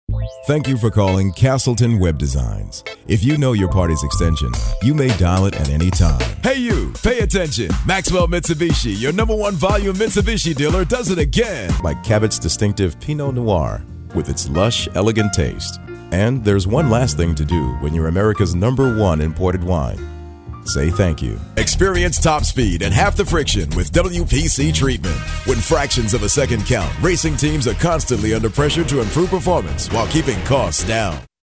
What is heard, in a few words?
urban male, on hold message